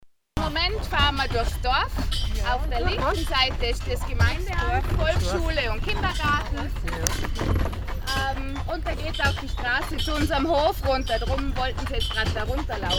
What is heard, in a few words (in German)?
Kutschenfahrt Stimme